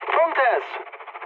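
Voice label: German chat, speech; War Thunder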